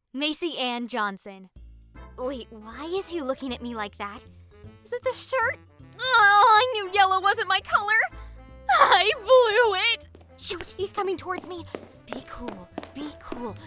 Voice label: Character

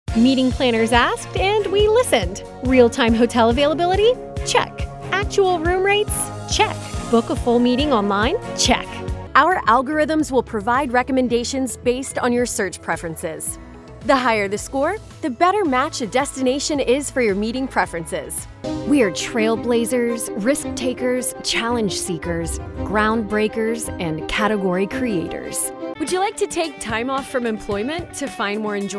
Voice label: Narr

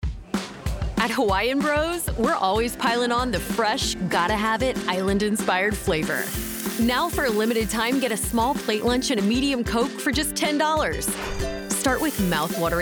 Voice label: Radio; Hawaiian Bros

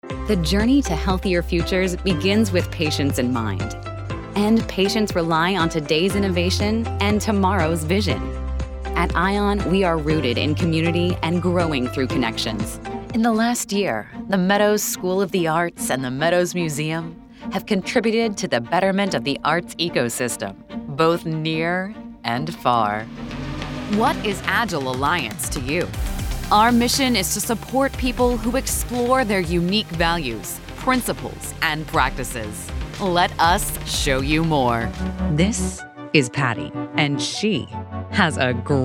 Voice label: Narration

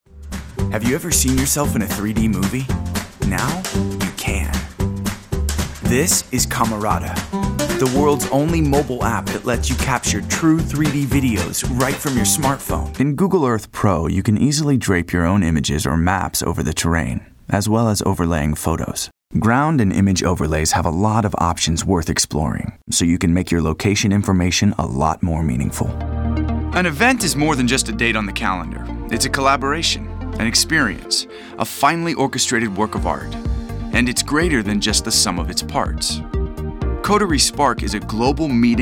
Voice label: Narr

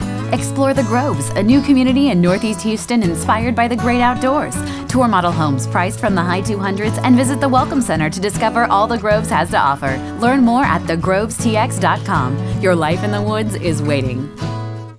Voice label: radio

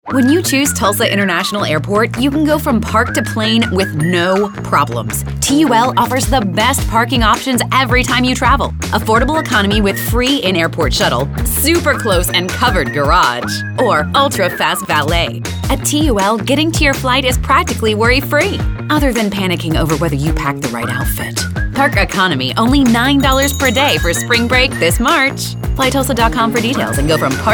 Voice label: Radio; Tulsa Intl Airport; Economy

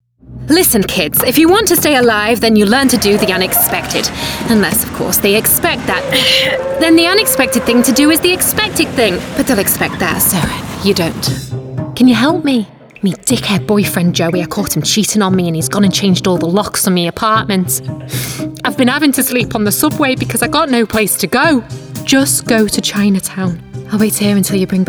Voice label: Character

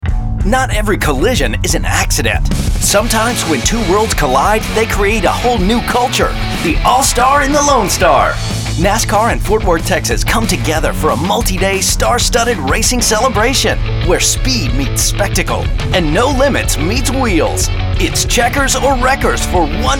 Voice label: Radio; 2022 TMS All Star

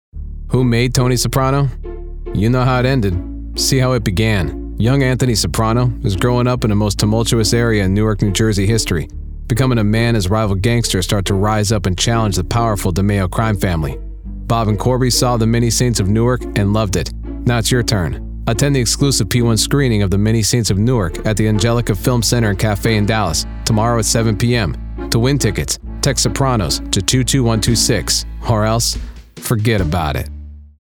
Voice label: Promo; Sopranos

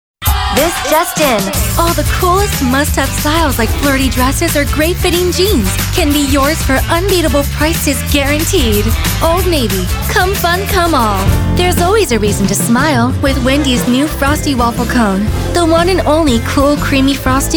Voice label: Commercial

